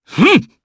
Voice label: Casting, jp; Clause; Kings Raid